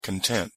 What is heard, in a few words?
pronunciation en content adjective